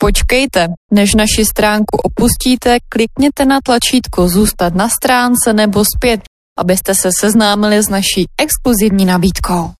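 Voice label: IVR Czech Dry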